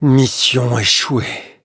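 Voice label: defeat, French; cookie run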